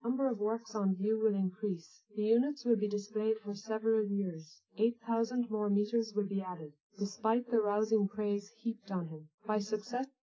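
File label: female speech; SiSEC10; underdetermined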